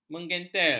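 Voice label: "menggentel", Malay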